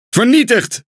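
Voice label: NL Speech Pilots Fighter Destroy, Dutch; World Of Warships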